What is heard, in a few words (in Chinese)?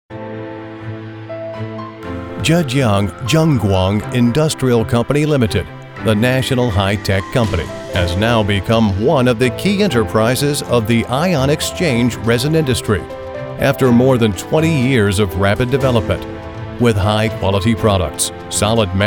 外籍英语男 企业宣传 充满希望 Corporate light and hopeful